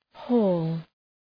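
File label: "hall", English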